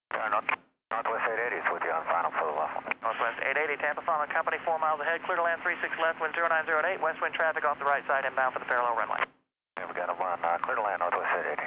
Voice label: chatter; rigs of rods